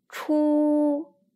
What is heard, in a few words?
"chu", Chinese